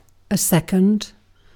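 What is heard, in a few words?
"second", British English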